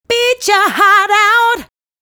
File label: "Beat Your Heart Out", vocal phrases; Ultimate Vocals